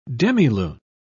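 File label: "demilune", British English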